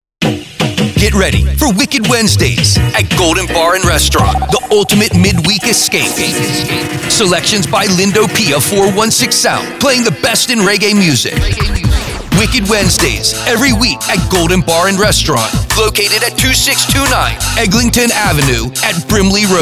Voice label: Promo Ad